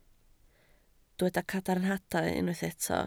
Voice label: "cadarnhadau", Welsh